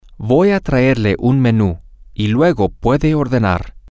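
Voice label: I am going to bring you a menu and then you can order, spanish, female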